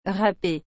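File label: "rape", French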